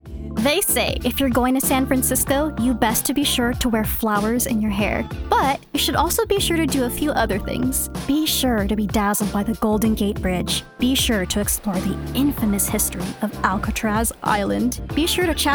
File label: Commercial